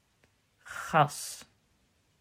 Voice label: "Gas", Dutch

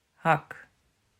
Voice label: "Hak", Dutch

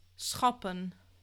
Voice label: "schappen", Dutch; klankstabiliteit

